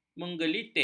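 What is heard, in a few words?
"menggelitik", Malay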